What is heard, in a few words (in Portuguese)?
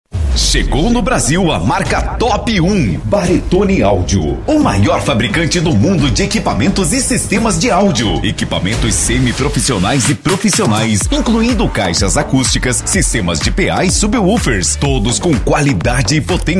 LOCUTOR; comerciais; BATERONE AUDIO